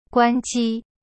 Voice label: "guan1ji1", Chinese; cyberchinese

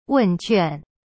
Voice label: "问卷" wen juan, chinese

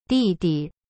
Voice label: "弟弟" di di, chinese

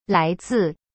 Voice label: "来自" lai zi, chinese

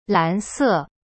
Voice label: "蓝色" lan se, chinese; cyberchinese

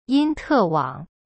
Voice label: "yin1te4wang3", Chinese; cyberchinese

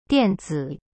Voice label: "dian4zi3", Chinese; cyberchinese

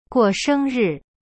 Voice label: "过生日" guo sheng ri, chinese

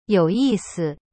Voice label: "有意思", Chinese; you yi si

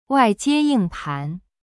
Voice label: "wai4jie1ying4pan2", Chinese; cyberchinese

